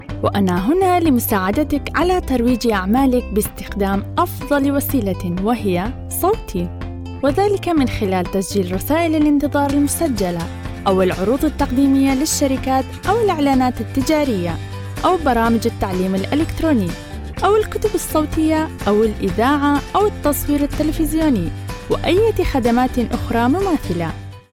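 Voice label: Arabic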